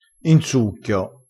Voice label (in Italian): "in succhio", crespinese